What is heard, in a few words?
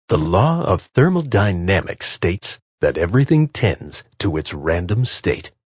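Law Of Thermodynamics, Male; Talking Sound Effects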